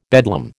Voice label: "bedlam", American